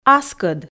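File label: "аскӧд", Komi, female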